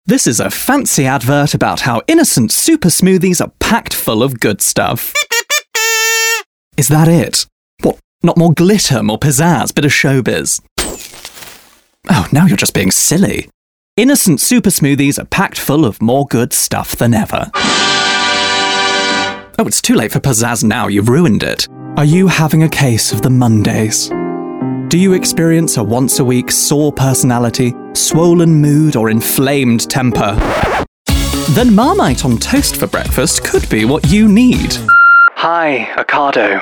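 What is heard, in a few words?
Commercial Reel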